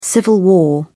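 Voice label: "civil war", British English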